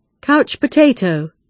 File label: "couch potato", British English pronunciation